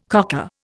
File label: "cocker", Indian